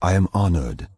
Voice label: Night Elf Male Standard Greeting

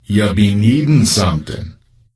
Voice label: NPC Death Knight Troll Male Greeting

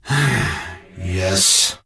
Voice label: Death Knight Male Low Greeting

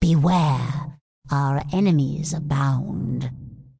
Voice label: Undead Female Magic Farewell; NPC

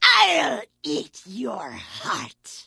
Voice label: Vrykul Female Warrior Pissed

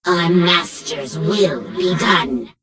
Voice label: Gnome Female Greeting; Death Knight

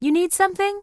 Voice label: Human Female Standard NPC Greeting

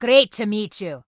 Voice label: Dwarf Female Guard NPC Greeting

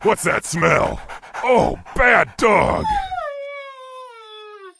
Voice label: Wolf Rider Pissed

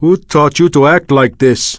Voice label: Draenei Male Pissed

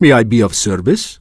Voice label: Draenei Male Vendor

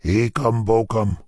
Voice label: Witch Doctor Pissed

